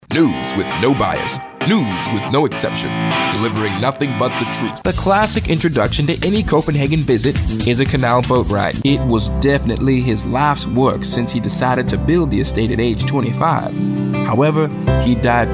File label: English - British